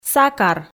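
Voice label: "сакар", Komi, female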